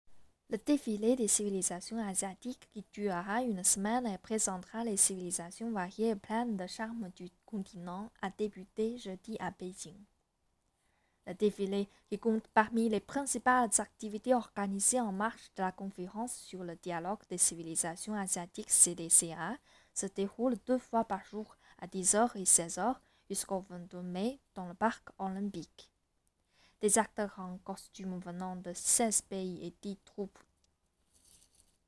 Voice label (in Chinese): 法语温柔女声